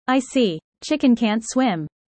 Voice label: user fast